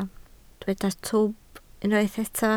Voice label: "twp", Welsh